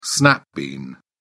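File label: "snap bean", British English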